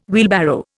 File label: "wheelbarrow", Indian